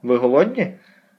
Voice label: dialogue, Ukrainian